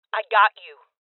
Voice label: Voices Heal Female

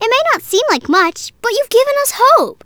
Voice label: Voices Speech Girl; Maze-Girl

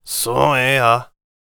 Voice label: warrior conversation emotion question

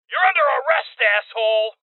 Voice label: Voices Order To Surrender Male

